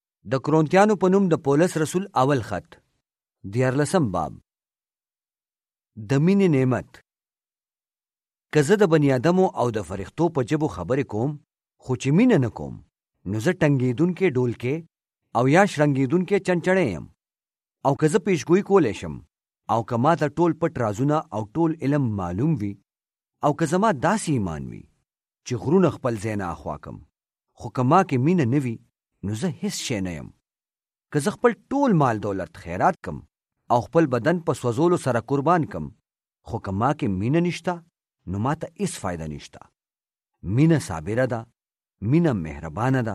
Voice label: 1 Corinthians - Chapter 13 in the Pashto language, Yousafzai; Afghan Bibles